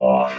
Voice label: "off", robot

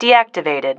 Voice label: "deactivated", female

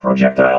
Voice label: "projectile", robot

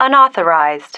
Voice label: "unauthorised", female

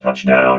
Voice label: "touchdown", robot

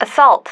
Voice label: "assault", female

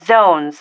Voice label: "zones", female

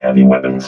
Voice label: "heavy weapons", robot

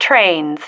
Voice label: "trains", female

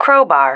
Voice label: "crowbar", female

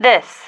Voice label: "this", female

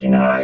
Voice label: "deny", robot vox

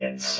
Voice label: "its", robot

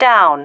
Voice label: "down", female

